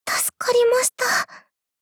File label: shy